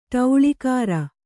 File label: "ಠೌಳಿಗಾರ", Kannada